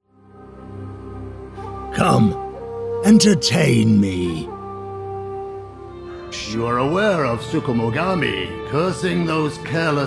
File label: character voices; Nioh 3; Matsunaga Hisahide